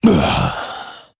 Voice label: Male Mummy Troll Death

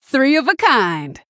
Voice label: Female Human Triple

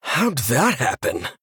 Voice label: Male Human Oops

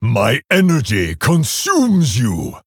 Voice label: Male Orc Attack

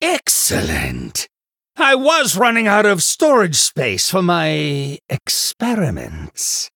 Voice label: Male Human

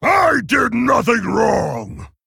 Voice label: Male Orc Emote

